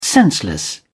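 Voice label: "senseless", British English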